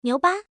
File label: female